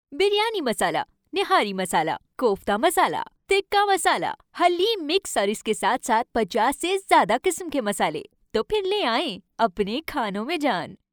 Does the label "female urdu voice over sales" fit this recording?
Yes